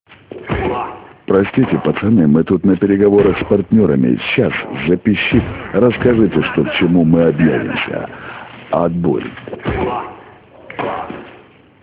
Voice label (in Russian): Avtootvet